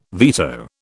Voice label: "veto", British

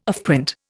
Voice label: "offprint", Indian

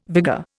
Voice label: "vigour", Indian

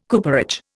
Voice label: "cooperage", Indian

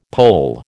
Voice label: "Pole", American

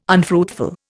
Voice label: "unfruitful", Indian

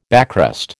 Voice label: "backrest", American

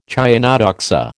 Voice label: "chionodoxa", American

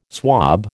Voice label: "swob", American

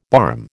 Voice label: "barm", American

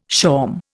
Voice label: "shawm", Indian

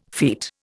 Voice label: "feet", Indian

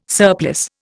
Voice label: "surplice", Indian

